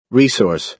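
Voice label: "resource", American English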